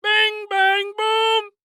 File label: Cartoon Voice Bing Bam Boom Goofy; AdobeCC